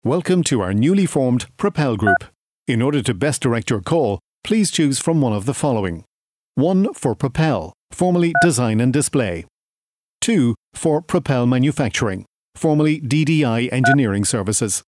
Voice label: IVR; propel